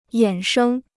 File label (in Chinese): "衍生", Chinese